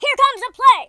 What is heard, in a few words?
"orders", US Sports speech